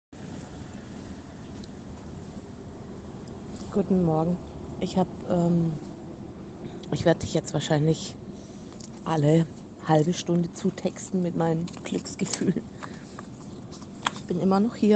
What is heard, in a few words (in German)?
Kundenstimmen